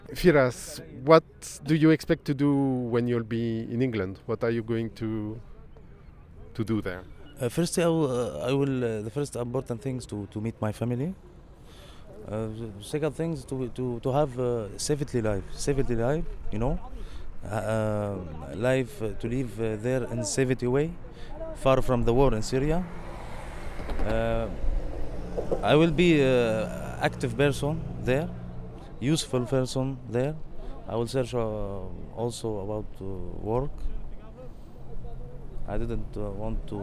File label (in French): Interview